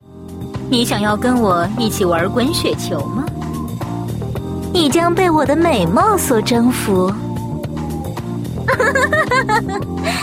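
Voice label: mature queen, female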